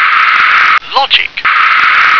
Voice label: "logic", English